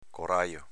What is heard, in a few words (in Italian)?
"corajo", dialetto veneto